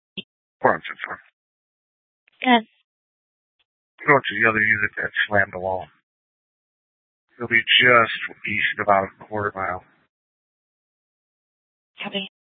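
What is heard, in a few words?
Mesa Police Department Superstition Dispatch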